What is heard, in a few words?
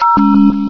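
"deeoo", male synthesized voice; vox; source engine; hl1; video games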